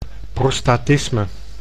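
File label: "prostatisme", Dutch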